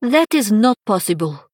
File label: female draenei, Error Generic